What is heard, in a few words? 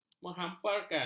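"menghamparkan", Malay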